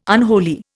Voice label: "unholy", Indian